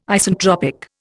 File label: "Isentropic", Indian